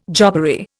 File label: "jobbery", Indian